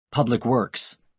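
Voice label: "public works", American English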